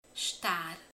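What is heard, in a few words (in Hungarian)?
"négy", Hungarian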